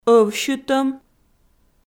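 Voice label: "ӧвсьытӧм", Komi, female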